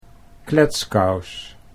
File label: "kletskous", Dutch